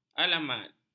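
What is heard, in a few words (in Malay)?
"alamat", Malay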